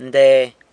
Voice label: "nde", San Lucas Quiavini; that one